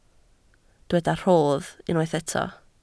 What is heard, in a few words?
"rhodd", Welsh